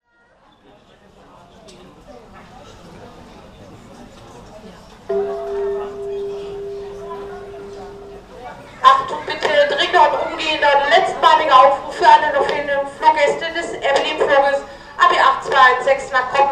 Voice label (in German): is closing, Flughafen Ansagen; Air Berlin 8216 is closing